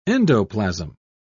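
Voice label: "endoplasm", British English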